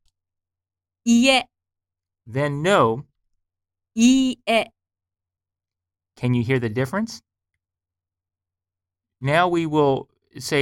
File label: Elongated Vowel Pronunciation